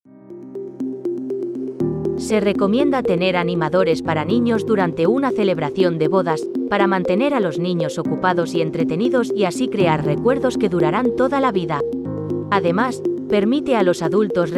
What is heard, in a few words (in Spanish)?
Spanish